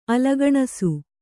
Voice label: "ಅಲಗಣಸು", Kannada; alagaṇasu; Noun